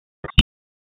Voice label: Police Spec Ops Tac 2, P25; wake

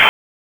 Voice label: Police Northwest District Tac 1; Raleigh; wake

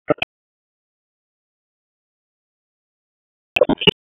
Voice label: Police Northwest District Tac 1; Raleigh; p25

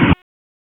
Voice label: Raleigh Police Northeast District Tac, p25

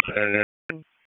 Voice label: EMS ALERT; wake; p25

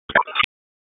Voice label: Police Tac, p25; Raleigh; Southeast District; wake

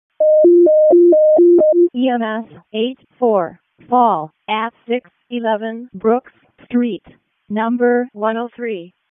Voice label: WC EMS ALERT, p25; wake

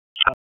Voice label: Raleigh Police Northeast District Tac, p25; wake

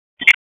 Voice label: Police District Tac, p25; Raleigh; Northeast; wake

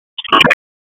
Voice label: Police Spec Ops Tac, p25; Raleigh; Tac 4; wake

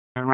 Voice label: FIRE OPS, p25; wake

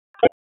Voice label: Police North District Tac 1, p25; Raleigh; wake

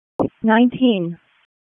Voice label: WC EMS ALERT, p25

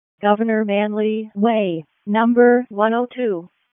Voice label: EMS ALERT, p25; wake p25

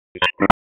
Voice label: Police Tac, p25; Raleigh; North District; Tac 1; wake

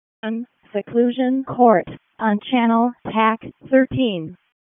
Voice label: EMS ALERT, p25; wake p25

